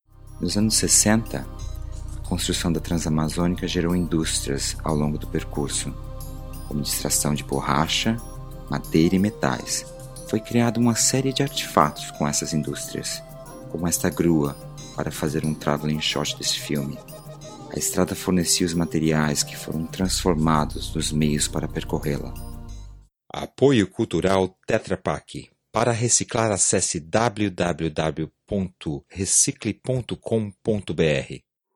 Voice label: Portuguese Brazilian, Male, 20s-40s